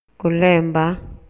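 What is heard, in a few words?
Maya, male; PERCEPCIÓN SENSORIAL; INALI; MayaYuc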